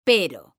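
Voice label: "pero", Spanish, female; mekanta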